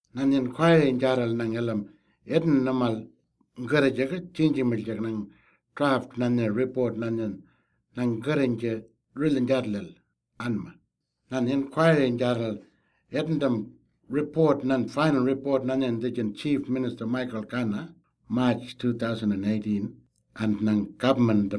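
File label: Western Arrarnta; Fracking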